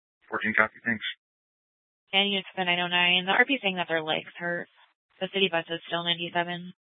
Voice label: Mesa PD Fiesta Dispatch; TOPAZ Regional Wireless Cooperative (TRWC); Mesa Simulcast